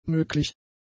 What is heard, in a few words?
"möglich", German; adjectives